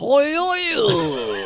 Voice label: voice, asian voices